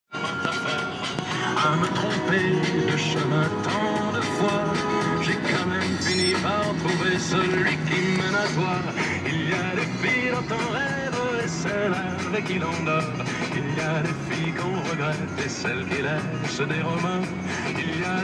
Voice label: french radio